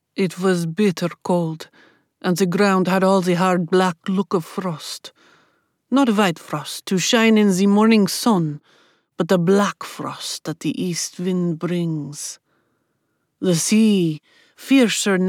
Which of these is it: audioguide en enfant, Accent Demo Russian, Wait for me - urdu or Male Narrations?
Accent Demo Russian